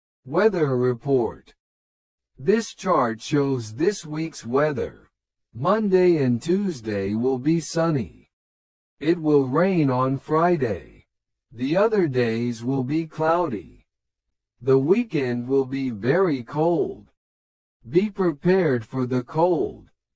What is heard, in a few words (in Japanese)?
トーク スロー